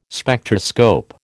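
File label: "Spectroscope", American English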